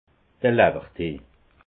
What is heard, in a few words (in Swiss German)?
"lawwertee", alsace